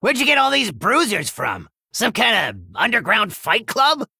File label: Male Gnome; Scabbs